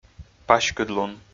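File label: "паськыдлун", Komi, male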